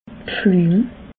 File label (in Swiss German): "pflum", alsace